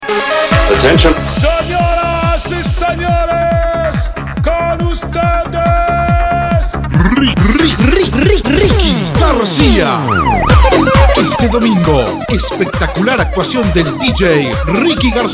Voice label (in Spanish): comercial; Maxims